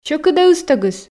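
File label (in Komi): сьӧкыд-ӧ ыстӧгыс, Komi, female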